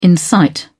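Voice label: "incite", British English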